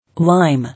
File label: "Lime", English